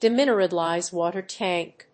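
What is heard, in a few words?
"demineralized water tank", English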